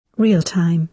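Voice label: "real-time", British English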